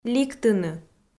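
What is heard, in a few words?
"ликтыны", Komi, female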